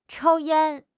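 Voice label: "chou1 yan1", Mandarin Chinese; HSK4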